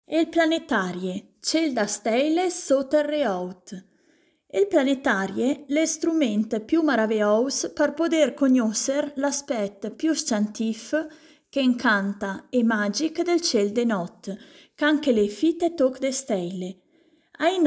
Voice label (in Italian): lingua Ladina; Planetario